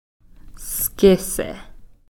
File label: "skisi", coyote